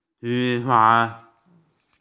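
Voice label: "fishing net", Mandarin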